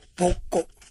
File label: "bokko", minamiyamagata